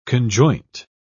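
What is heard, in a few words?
"conjoint", British English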